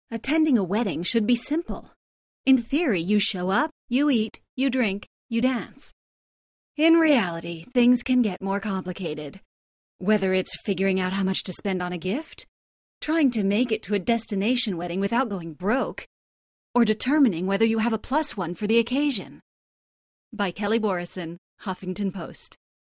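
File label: F ANG, English, female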